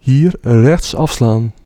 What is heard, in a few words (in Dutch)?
Turn Right, Dutch, male